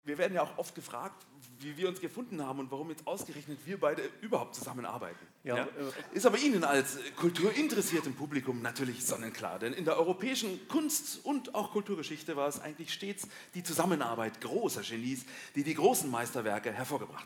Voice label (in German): radiopromo; senkrecht und pusch